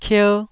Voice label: "kier1", taiwanese syllables

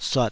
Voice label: "suat3", taiwanese Syllables

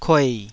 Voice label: "kuei3", Taiwanese syllable

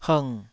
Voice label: "hng3", taiwanese syllable

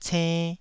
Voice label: "cenn2", taiwanese Syllables

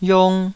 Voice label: "yong2", taiwanese

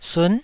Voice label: "sun9", taiwanese Syllables

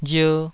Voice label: "rier2", taiwanese syllable

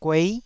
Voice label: "guei9", taiwanese

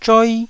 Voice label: "zhoi1", Taiwanese syllable

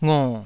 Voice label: "ngo3", taiwanese syllables

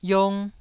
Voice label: "iong1", taiwanese syllable

